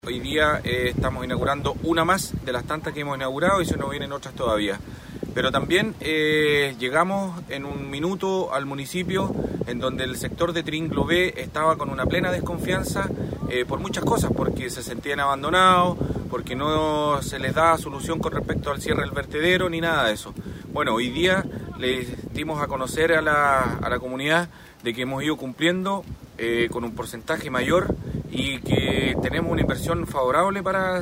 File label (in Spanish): cuña anuncios; ALCALDE ANUNCIOS TRINGLO B